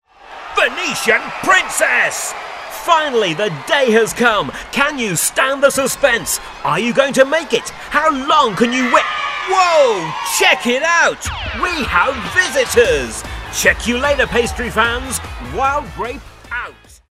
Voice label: high energy street interviewers

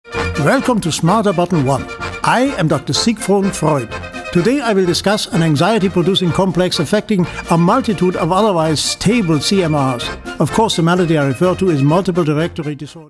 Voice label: German

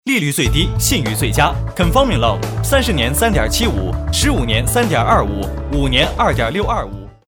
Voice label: Foreign Commercial

